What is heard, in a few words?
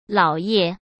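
"姥爷", Mandarin Chinese; chinese flashcards; hsk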